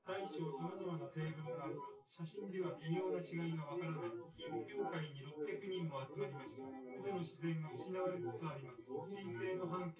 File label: test male synthconv